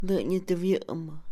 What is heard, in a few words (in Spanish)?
Mixe, Mujer; sorprendido; Emociones; INALI